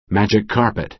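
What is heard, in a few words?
"magic carpet", American English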